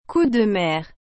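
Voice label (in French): "coup de mer", French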